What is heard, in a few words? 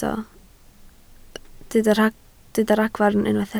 "ragfarn", Welsh